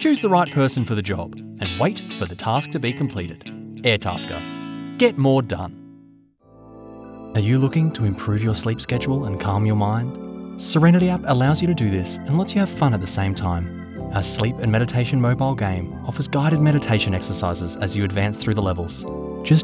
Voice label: Commercial voiceover

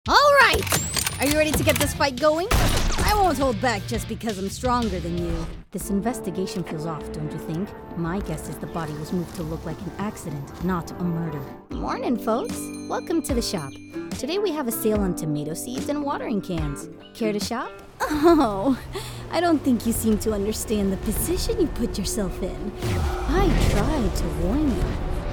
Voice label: English Video Game; en-GB

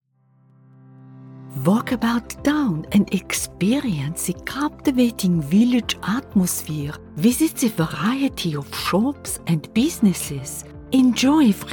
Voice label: German Accent Podcast; Bigmouth

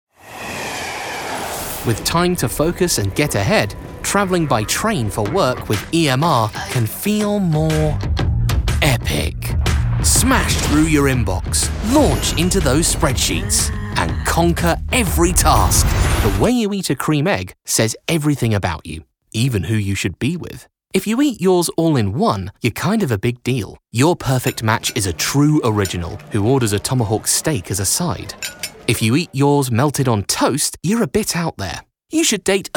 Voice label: commercial

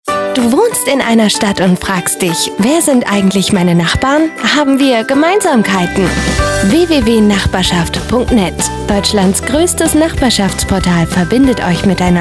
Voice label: Spots

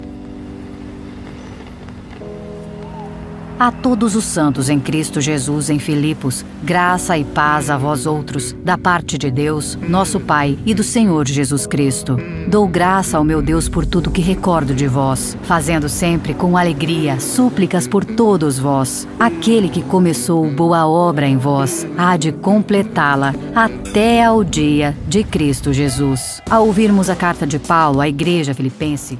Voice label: Trailer Dubbing Dublagem; Livro de Filipenses; RightNowMedia

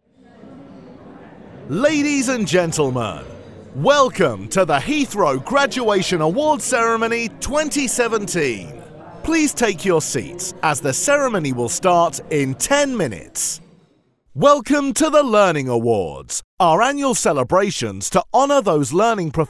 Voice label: Voice Of God

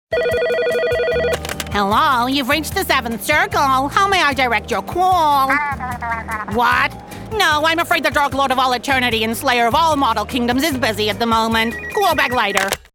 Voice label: ANIMATION New York Monster Receptionist

